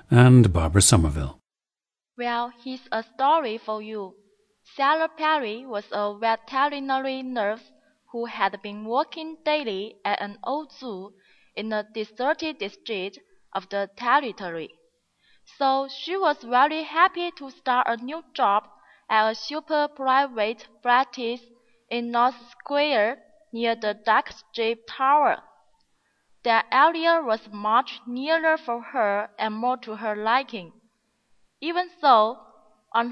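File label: guangxi, International Dialects of English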